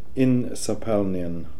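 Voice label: "Insapalnian", pronunciations; Fourth Way; Beelzebub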